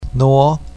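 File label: "nuo2", Chinese pinyin, second tone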